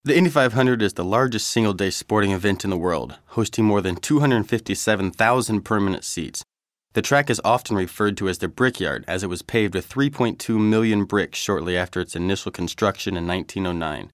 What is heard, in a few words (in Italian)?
inglese usa giovane